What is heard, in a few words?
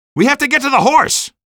horse, male; Half-Life 2; streetwar; rubble